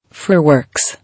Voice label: "Fir works", English